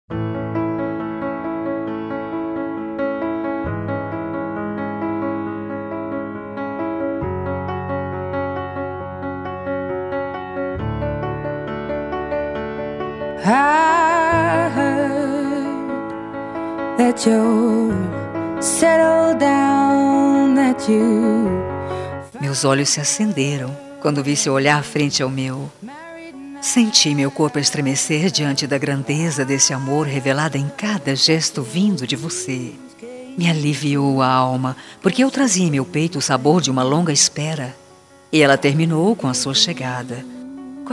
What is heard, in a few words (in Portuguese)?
ROMANTICA PARA ESPOSO, telemensagem